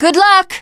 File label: initial meeting, teen girl